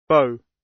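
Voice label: "bow" British English; The arts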